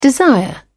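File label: "desire", British English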